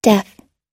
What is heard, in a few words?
"deaf" us; 耳の不自由な、耳の聞こえない